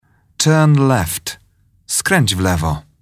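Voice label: "turn left", English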